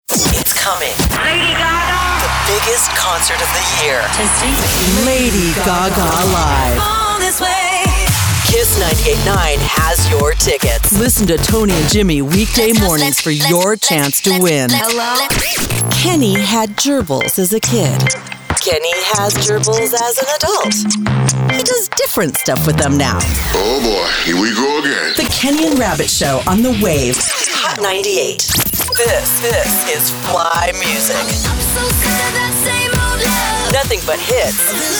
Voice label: female, Imaging; CHR

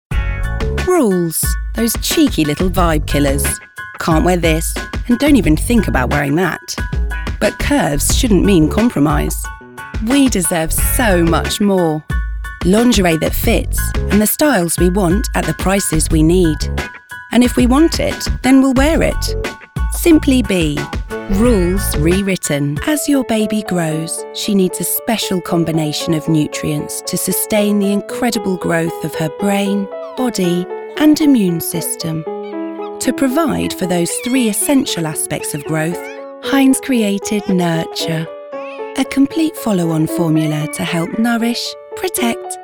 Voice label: Commercial

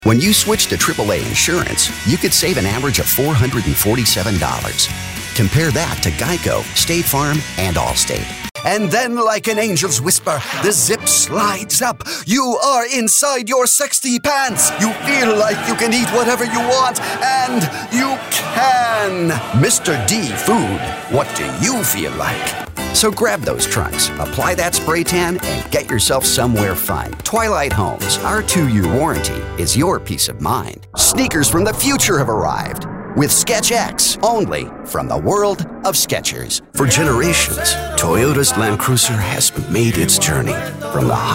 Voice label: Commercial MONO

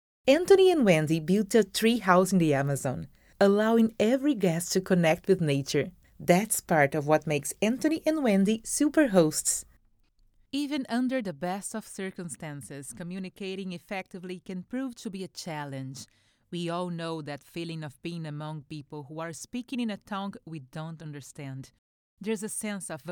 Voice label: English

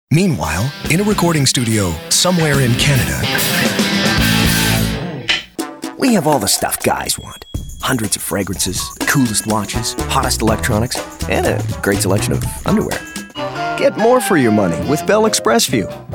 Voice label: Commercials

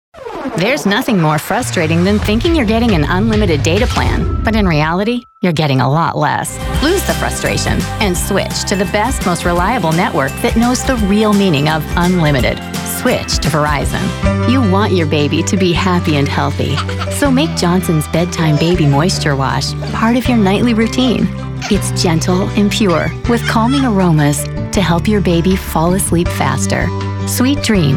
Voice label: Commercials

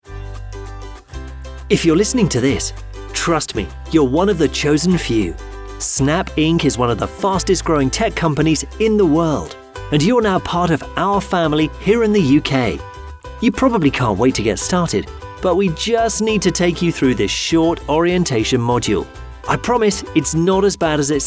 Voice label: E-Learning

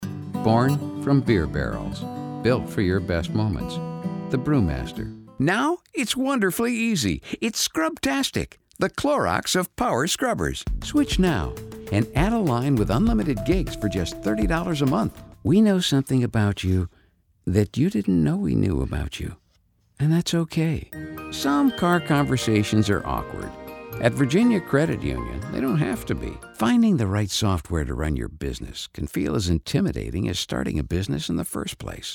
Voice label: Voice Samples - Light & Intimate

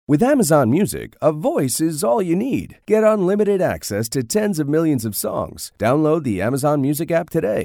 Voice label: Friendly, Upbeat; Amazon Music

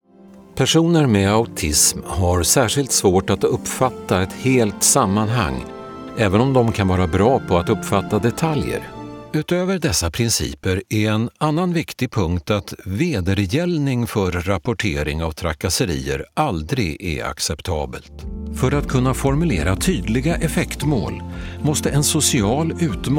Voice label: Male Swedish Voiceover eLearning

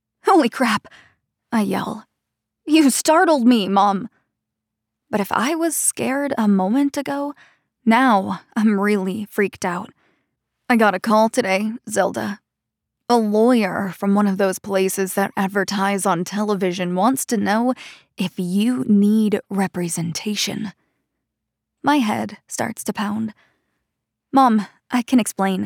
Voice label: Psych Thriller First Person

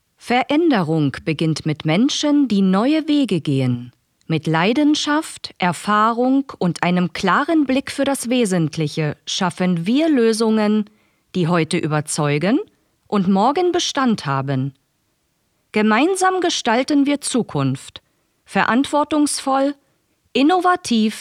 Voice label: Corporate DE

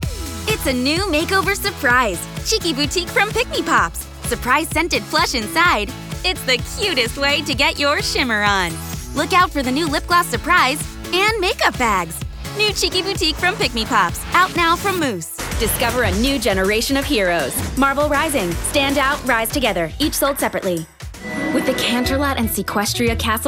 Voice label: Toy Commercial

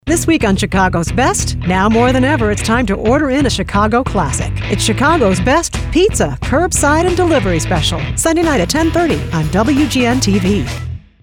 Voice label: Promo; WGN TV Chicago's Best

